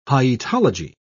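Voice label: "hyetology", British English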